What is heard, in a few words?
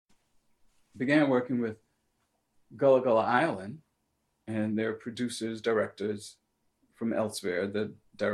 USA South Carolina Sea Islands Gullah Male 40s